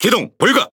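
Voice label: Speech Defective Modules Wheel Damaged, Korean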